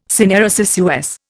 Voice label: "syneresis" US